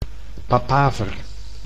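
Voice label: "papaver", Dutch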